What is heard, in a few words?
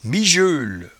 "mijeule", patois jura